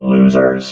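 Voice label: "losers", robot voice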